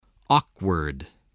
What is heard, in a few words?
"awkward", english